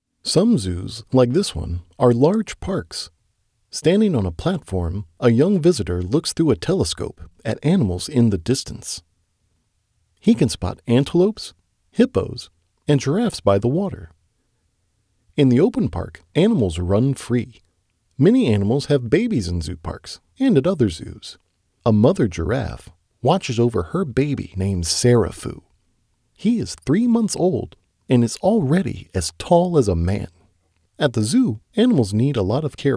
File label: Non Fiction Childrens